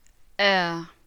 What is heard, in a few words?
"air" "heir"